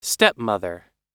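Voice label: "stepmother", US English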